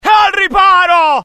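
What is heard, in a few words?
fear grenade, IT; mohaa; dialogue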